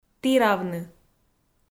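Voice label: "тіравны", Komi, female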